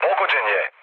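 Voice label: Serbian aircraft destroyed mood low, speech; War Thunder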